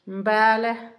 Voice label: conejo, Zapoteco, Asunción Ocotlan; Animales; INALI; Haspelmath

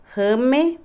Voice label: madrastra, Otomí, mujer; Parentesco; Vocabulary; INALI

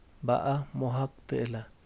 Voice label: pato, Yaqui; Vocabulary

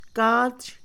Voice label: "costilla", Mixe, Mujer; Cuerpo; INALI

